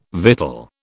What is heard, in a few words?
"victual", British